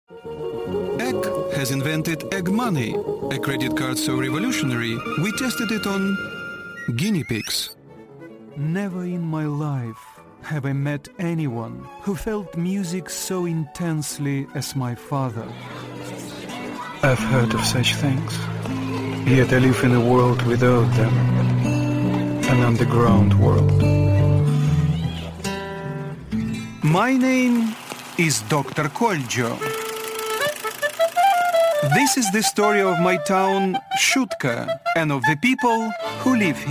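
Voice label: RU AccEN, Russian accented English; GoLocalise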